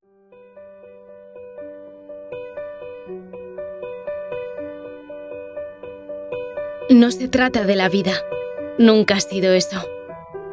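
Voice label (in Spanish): CORPORATIVO CASTELLANO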